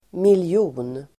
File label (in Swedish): "miljon", Swedish